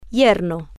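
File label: "yerno", Spanish, female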